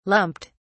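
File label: "lumped", British English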